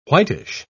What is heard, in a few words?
"whitish", pronounce